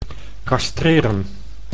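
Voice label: "castreren", Dutch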